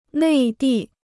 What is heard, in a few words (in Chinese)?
"内地", Chinese